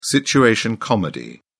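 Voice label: "situation comedy", British English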